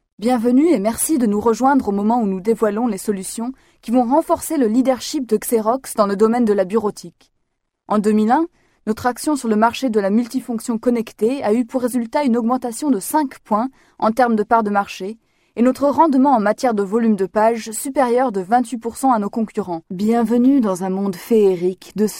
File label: French